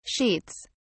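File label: "sheets", British English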